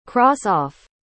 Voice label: "cross off", school instructions